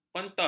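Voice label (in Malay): "kontot", Malay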